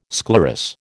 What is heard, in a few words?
"sclerous", American